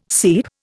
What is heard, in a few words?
"seep", Indian